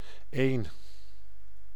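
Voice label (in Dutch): "een", Dutch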